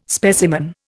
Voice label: "specimen", Indian